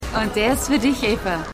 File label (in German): Barfrau; Lucifer